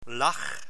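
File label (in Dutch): "lag", Dutch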